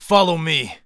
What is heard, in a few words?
Cover, Human Male; zps; HumanMale-01